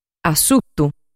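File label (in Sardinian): "Assutu", Sardinian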